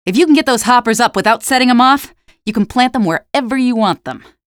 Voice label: mine help, male; Half-Life 2; streetwar; barricade